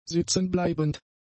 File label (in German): "sitzenbleibend", german; adjectives